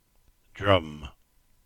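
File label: "Drym", Cornish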